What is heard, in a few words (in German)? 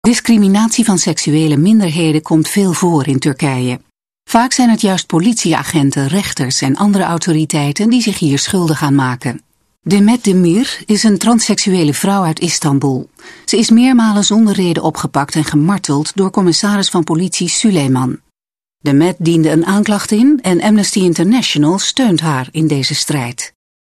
netherlands female filmvertonung sprecher